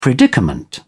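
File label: "predicament", British English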